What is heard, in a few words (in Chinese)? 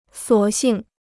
"索性", Chinese